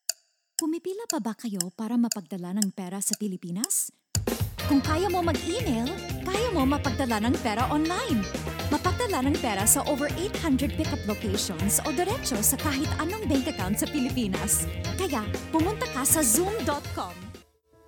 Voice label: Tagalog; Tagalog, Female, Home Studio, 20s-30s